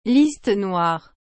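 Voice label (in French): "liste noire", French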